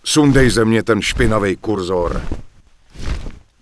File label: Human Male Pissed, Human Vocal Male; wowpreklad; czwow